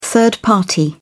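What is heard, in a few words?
"third party", British English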